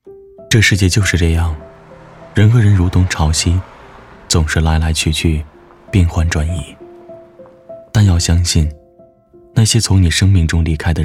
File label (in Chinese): 男 宣传; 这世界就是这样